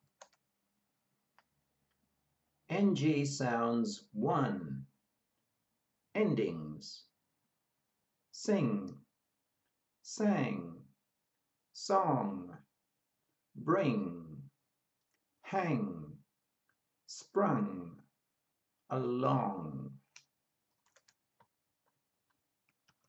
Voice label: Ng sounds, pronunciation of australian english